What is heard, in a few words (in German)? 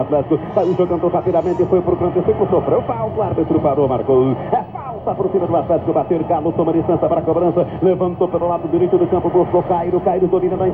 radio fussball; Brasilien; Fieldrecordings